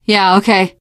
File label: yes, teengirl; source engine; left 4 dead 2; survivor